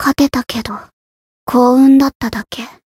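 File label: Voice win barely, Japanese; ruina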